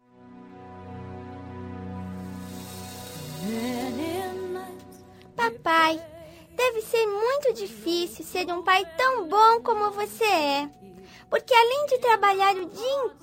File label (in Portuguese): Dia dos Pais F Infantil, telemensagens